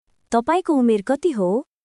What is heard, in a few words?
Nepali, basic phrases